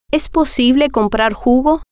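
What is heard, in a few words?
es Posible Coprar Jugo, Spanish, female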